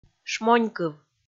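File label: "шмонькыв", Komi, female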